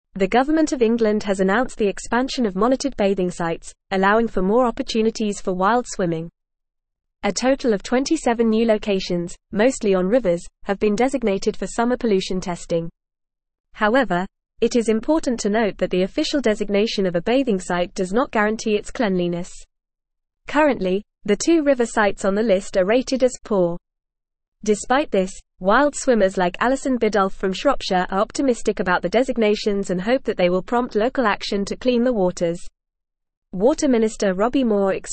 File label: English Advanced FAST Reading; Government Expands Monitored Bathing Sites But Quality Concer